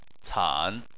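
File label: "can" third tone, Chinese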